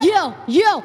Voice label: "yo yo", Voice; ooh ahh